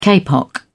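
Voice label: "kapok", British English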